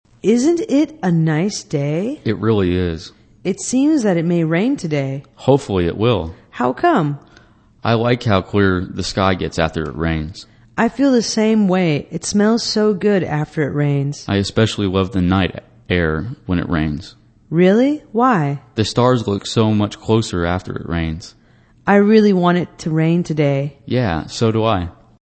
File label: english conversation weather